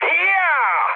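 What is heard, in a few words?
trick, Czech, mood med; speech; War Thunder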